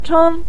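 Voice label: "cheng" Mandarin Chinese, neutral tone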